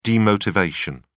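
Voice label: "demotivation", British English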